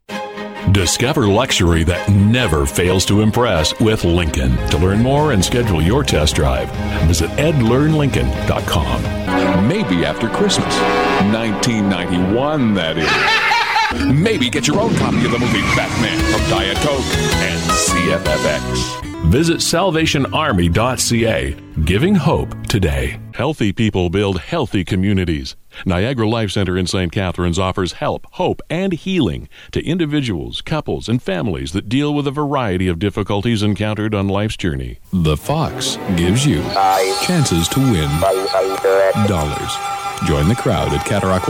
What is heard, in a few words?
radio commercials